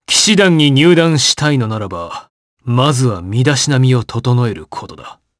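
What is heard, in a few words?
voice dia, voices heroes, Japanese; Roman; kingsraid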